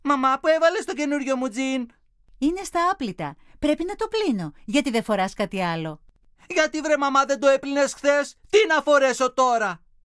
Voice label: dialog